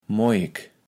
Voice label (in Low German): "Moajek", Platt